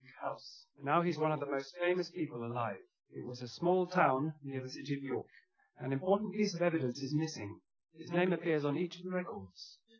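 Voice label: male speech; SiSEC10; underdetermined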